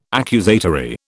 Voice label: "accusatory", British

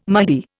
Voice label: "Mahdi", Indian

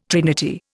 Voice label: "trinity", Indian

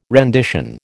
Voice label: "rendition", American

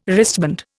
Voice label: "wristband", Indian

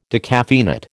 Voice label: "decaffeinate", American

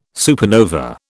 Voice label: "supernova", British

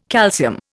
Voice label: "calcium", Indian

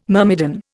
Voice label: "myrmidon", Indian

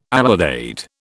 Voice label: "alidade", British

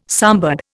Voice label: "sambar", Indian

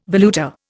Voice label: "valuta", Indian

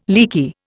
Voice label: "leaky", Indian

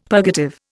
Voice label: "purgative", Indian; Dictionary

